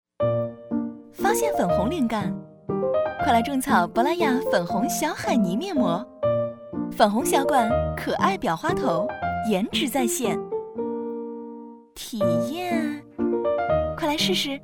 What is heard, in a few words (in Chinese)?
广告美妆甜蜜可爱